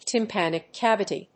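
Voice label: "Tympanic Cavity", English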